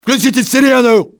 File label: sound the alarm, russian soldier; enemy; XBOX